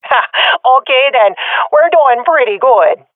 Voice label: round winning, swat fem